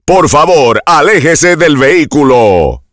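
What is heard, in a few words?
Step Away From Vehicle, Spanish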